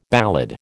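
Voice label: "ballad", American English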